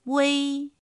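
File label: "ūi", Chinese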